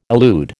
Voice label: "elude", American

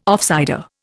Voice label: "offsider", Indian

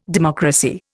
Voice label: "democracy", Indian

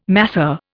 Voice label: "masseur", Indian

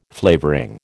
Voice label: "flavouring", American

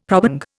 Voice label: "probang", Indian; Dictionary

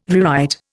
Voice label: "rewrite", Indian

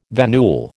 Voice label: "venule", American

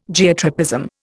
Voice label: "Geotropism", Indian

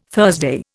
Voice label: "Thursday", Indian

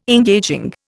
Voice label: "engaging", Indian

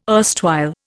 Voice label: "erstwhile", Indian

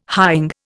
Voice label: "hying", Indian

